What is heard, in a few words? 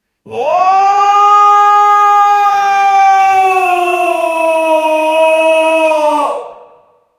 a man yelling